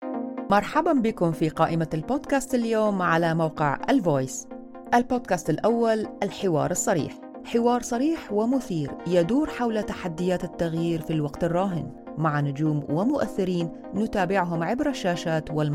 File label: AR, Commercial; GoLocalise